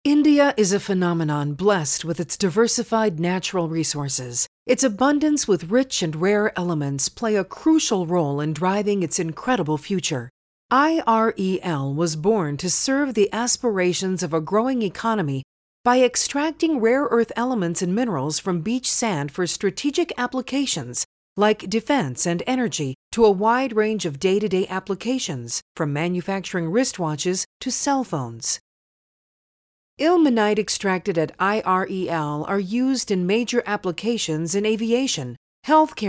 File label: Explainer Videos; IREL